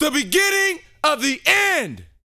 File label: TALK FF, Vocal Planet R&B Dance SCI-FI; 5 SCI-FI CHZ